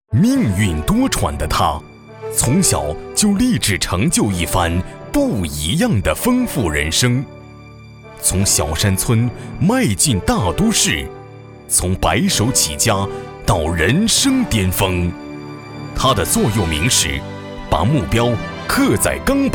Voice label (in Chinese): 男国 专题 颁奖 人物